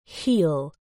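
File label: "heel", British English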